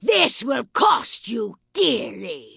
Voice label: Vrykul Female Magic User Vendor